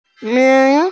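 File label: "min2", Mandarin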